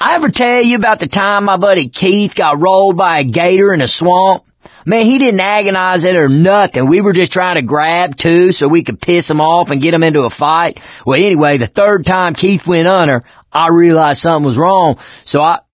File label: stories, mechanic; ellis; Left 4 Dead 2; Source Engine; video games